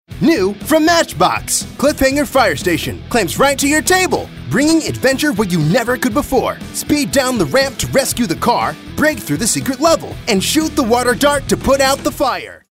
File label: high energy